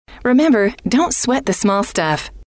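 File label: remember don't sweat the small stuff, female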